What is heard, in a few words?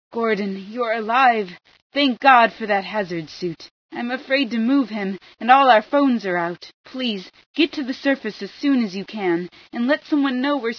scan, female